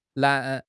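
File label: "nan2", Mandarin Chinese; HSK2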